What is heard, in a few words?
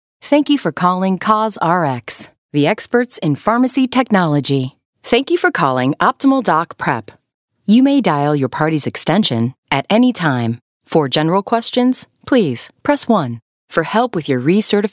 Voicemail Xmas